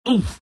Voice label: tusk pain

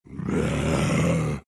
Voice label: tiny anger; dota

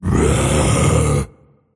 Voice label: anger; dota; tiny

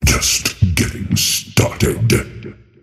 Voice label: attack pain; dota; earthshaker; arcana

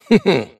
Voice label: happy; davion; dota; dragon knight

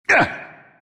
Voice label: pain; stormspirit

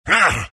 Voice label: pain; dota; earth spirit

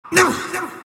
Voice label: monkey crown pain; dota; monkey king

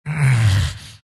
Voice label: grunts; dota; elder titan

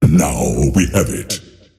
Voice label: lasthit pain; dota; earthshaker; arcana

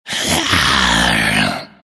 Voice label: happy; dota; slardar

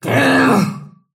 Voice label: anger; snapfire

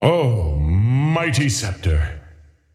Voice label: scepter announce in game; dota

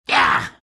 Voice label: lion pain; dota

